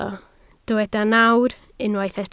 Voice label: "nawr", Welsh

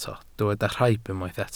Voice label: "rhaib", welsh

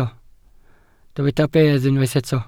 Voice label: "bedd", Welsh

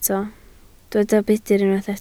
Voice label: "budr", Welsh

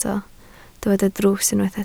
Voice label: "drws", Welsh

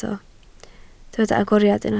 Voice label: "agoriad", Welsh

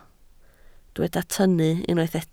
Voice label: "tynnu", Welsh

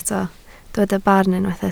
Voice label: "barn", Welsh

